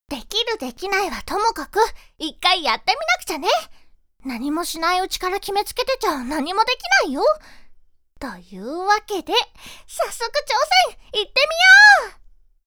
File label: voice girl